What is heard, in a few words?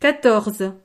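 "quatorze", French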